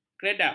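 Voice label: "kredep", Malay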